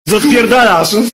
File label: angry polish kid